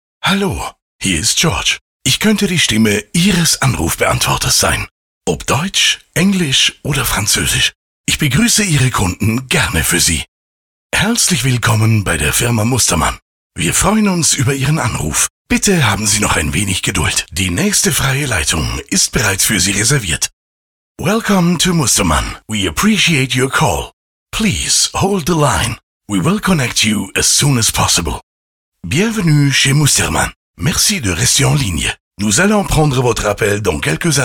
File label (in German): Telefonansagen